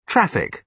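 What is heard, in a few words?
"traffic", British English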